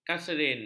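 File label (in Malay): "kaserin", Malay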